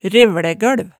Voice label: "revlægølv", Hemsedal

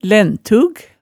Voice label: "læntug", hemsedalsmaalet, tale

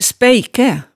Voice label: "spæikæ", hemsedalsmaalet

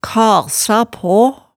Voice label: "kasa paa", hemsedalsmaalet tale

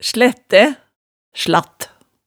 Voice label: "slættæ", hemsedalsmaalet, tale

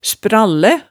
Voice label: "sprallæ", hemsedalsmaalet, tale

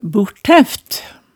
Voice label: "burthæft", hemsedalsmaalet tale

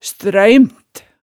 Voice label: "strøymt", hemsedalsmaalet

